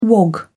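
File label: "wog", English, British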